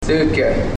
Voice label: 01, Hopi numbers